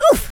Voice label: oof, speech, Madchester

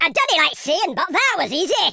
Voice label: victory, Scotsman; speech

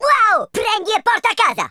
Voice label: amazing, speech Italian

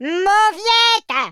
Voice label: coward, French

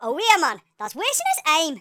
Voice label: bummer, Geordie, speech

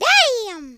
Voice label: "uh oh", cowgirl speech

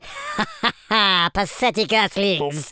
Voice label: "flawless", Super Villain; speech

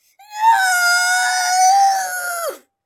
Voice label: scared; speech

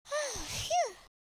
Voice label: relief, Southern Belle; speech

